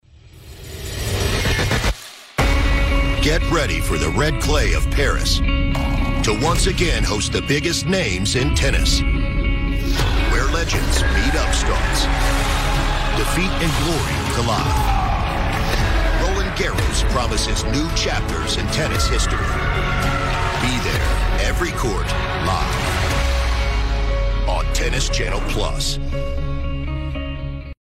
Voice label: Conversational male voice, Main Demo; Versatile Voice Over Range, Including Commercial Ads, Corporate Narration, Ani; Tennis Channel French Open